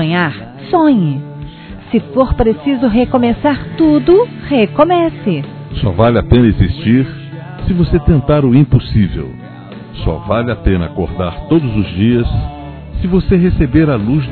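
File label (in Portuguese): Aniversário de Afilhados, female and male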